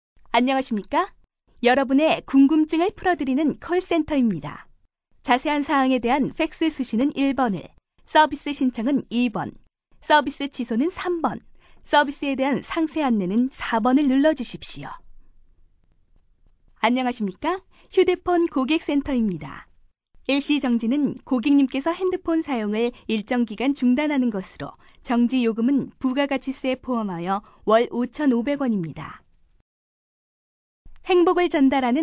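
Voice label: Korean female; ARS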